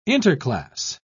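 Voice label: "interclass", English, British English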